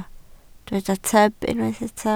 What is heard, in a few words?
"tyb", Welsh